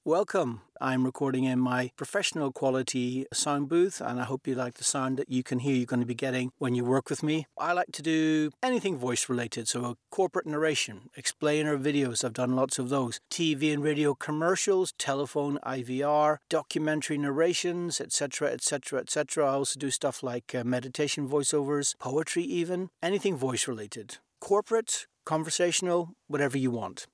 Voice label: studio voice over greeting for career profile